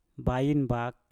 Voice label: "bain bak", Mpur; kebun baru

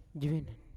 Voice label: "jeven", Yawa; not yet

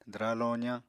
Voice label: "dwraa-lozañ", Apatani

